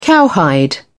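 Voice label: "cowhide", British English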